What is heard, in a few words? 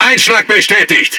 Speech Hit Confirmation, DE; World Of Warships